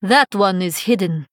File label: Female Draenei ERROR STEALTH